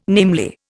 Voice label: "namely", Indian